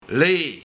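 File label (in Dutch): "Lee", Luik, Lende, taile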